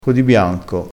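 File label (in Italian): "codibianco", dialettale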